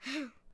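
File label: Settler Child Fort Vox; Civ4 warlords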